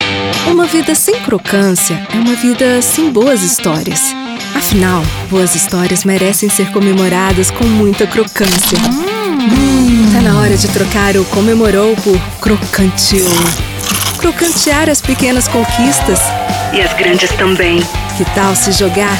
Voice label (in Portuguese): Estilo Conversado